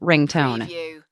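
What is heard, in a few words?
Woman Says Ringtone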